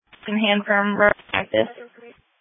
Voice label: Sheriff Dispatch